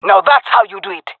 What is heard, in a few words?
compliment, jungle fem; vo; agents; Source Model Packs; Counter Strike Model Pack; CS2; jungle fem epic